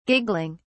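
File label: "giggling", British English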